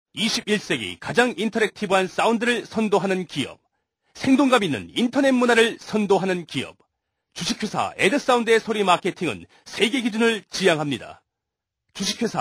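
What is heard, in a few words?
Korean male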